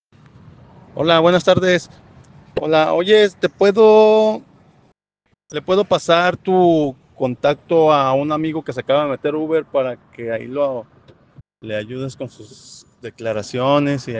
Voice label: whatsapp ptt